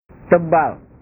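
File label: "tebal", Indonesian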